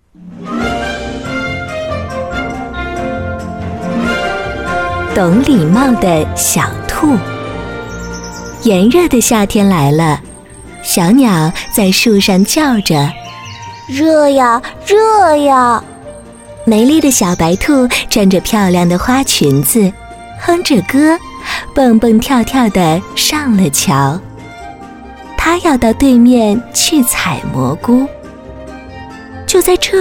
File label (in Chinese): 多媒体 儿童故事, 女, 普通话; 懂礼貌的小兔